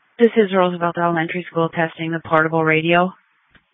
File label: Police Tactical Encrypted